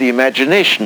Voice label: LoFi Words, LoFi Vocals